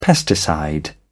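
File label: "pesticide", British English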